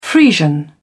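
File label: "friesian", British English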